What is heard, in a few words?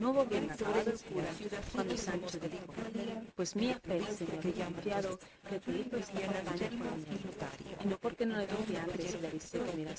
female inst src; SiSEC08; SiSEC underdetermined